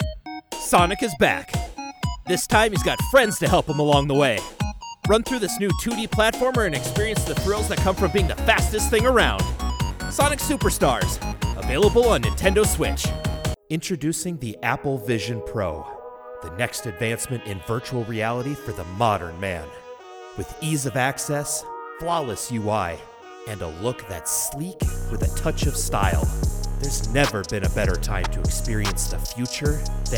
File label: Commercial